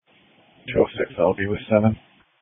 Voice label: Police Dispatch; sdr